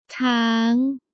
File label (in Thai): "ช้าง", Thai